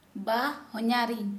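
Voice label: Santali, female, brother in law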